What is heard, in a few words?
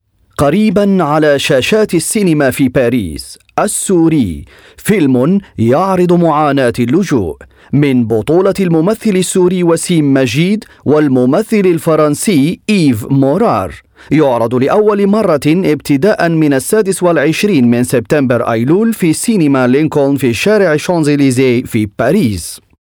Arabic movie trailers